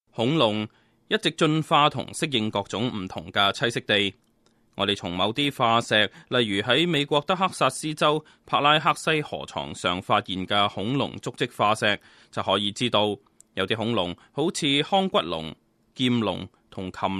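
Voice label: Cantonese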